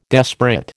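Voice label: "desperate", American English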